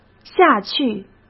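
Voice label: "下去", Mandarin Chinese; chinese flashcards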